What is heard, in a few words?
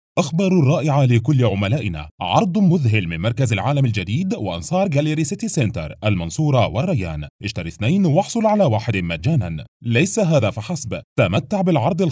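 Arabic, male, e-learning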